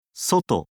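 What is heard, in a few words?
"soto", Japanese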